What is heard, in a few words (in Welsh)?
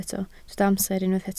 "amser", Welsh